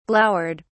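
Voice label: "glowered", English, British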